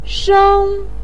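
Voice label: "sheng", Mandarin pinyin, first tone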